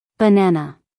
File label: "banana" us female